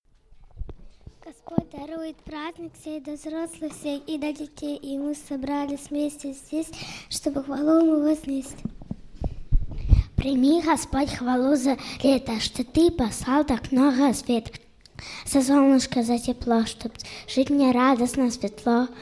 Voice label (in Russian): Декламация дети; Жатва; г. Саки; Записи Богослужений